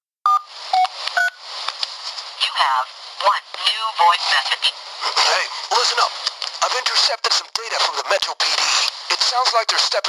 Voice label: voicemail; deathwish